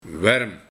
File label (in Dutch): "Werm", Warm